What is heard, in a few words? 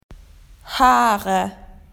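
"hair", Australian English; PictureDictionary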